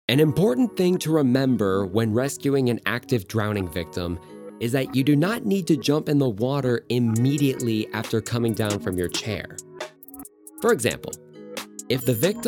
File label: Elearning; Voice Actor from good ol' Virginia. Virginia Beach